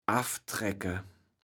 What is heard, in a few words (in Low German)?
"afftrecke", kaevels platt